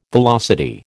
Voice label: "velocity", American

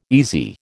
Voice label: "easy", American

